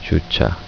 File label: parking, korean